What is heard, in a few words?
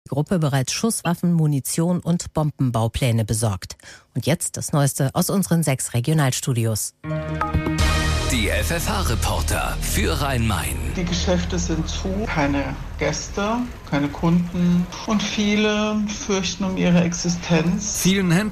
Aircheck; HITRADIO FFH; Radio/Tele FFH GmbH